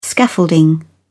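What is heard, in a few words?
"scaffolding", British English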